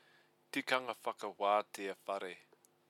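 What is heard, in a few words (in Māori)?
"tikanga whakawatea whare", Māori word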